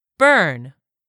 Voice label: "BURN", English; 3000 words